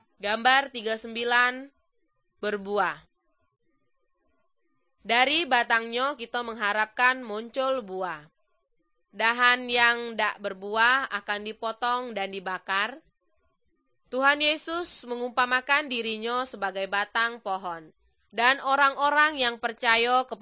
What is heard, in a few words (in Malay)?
good news malay jambi